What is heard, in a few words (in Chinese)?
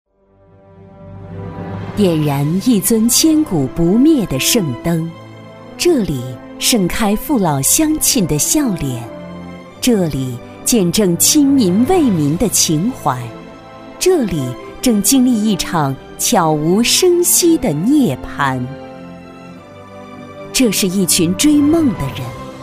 专题 知性深情